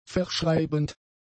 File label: "verschreibend", German; adjectives